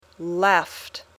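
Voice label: "left", English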